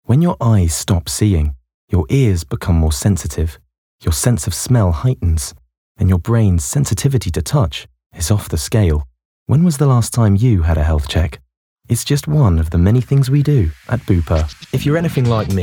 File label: Commercial Showreel